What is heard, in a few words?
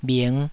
"bhing5", taiwanese